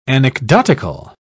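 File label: "anecdotical", British English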